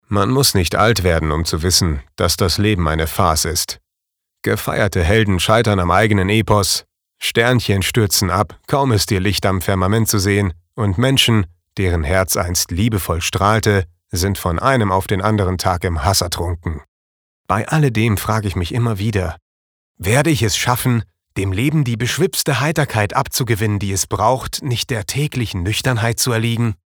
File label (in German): Sanft; Weltschmerz